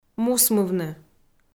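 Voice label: "мусмывны", Komi, female; kpv audio dict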